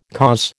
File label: "cause", American